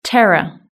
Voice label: "terror", British English